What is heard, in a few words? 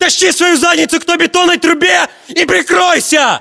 order cover concrete pipe, ru; localized english